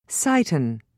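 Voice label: "cyton", British English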